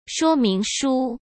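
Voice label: "说明书", Mandarin Chinese; chinese-flashcards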